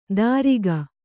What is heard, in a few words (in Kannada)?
"ದಾರಿಗ", Kannada